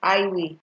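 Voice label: "highway", Nigerian Pidgin, female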